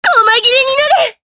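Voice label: voice, Japanese; Erze; kingsraid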